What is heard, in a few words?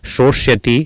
"SroSyati", Sanskrit